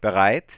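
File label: "ready to dial", German; Samsung SGH-i310; Voice Sounds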